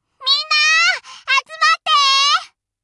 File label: chitchat, voice commands Anime Loli; svencoop